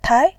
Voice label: "tai2", voice